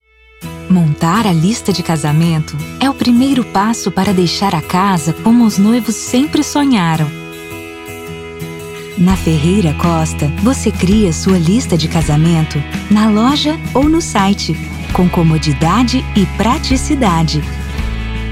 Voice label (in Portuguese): Romantico Onirico spot; Ferreira Costa